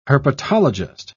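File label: "herpetologist", British English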